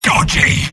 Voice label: sniper jeers; mvm